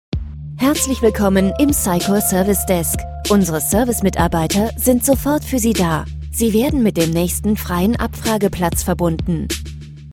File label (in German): Ansage vor melden; deutsch